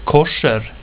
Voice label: "kosher", swedish

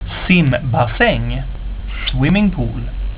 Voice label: "simbassang", swedish; plaatsen

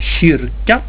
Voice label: "kyrka", swedish; plaatsen

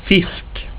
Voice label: "fisk", swedish

